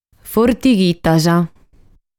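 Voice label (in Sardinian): "Fortighitas", Sardinian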